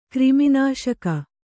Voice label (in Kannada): "ಕ್ರಿಮಿನಾಶಕ", Kannada